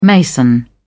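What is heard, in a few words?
"mason", British English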